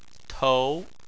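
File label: "tou", Chinese, second tone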